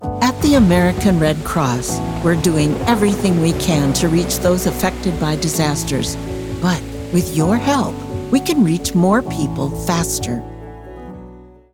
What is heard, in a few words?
commercial; Vibrant Voices; redcross